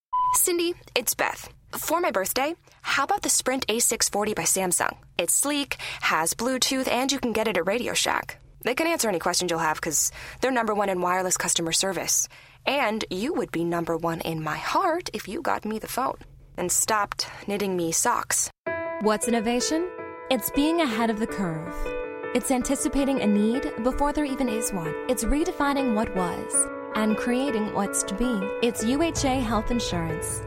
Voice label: USA